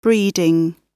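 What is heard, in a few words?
"breeding", British English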